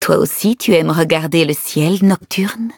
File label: levelup, fr; cookie run